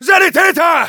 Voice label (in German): Demoman medic, de